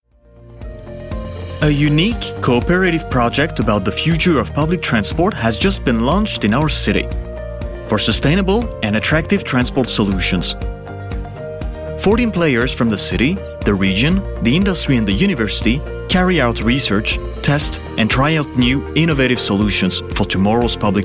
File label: corporate en